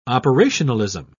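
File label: "operationalism", British English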